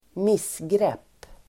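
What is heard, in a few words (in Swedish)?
"missgrepp", Swedish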